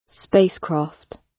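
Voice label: "spacecraft", British; Space, the planets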